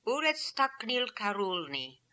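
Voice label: "Ooretstaknilkaroolni", pronunciations; Fourth Way; Beelzebub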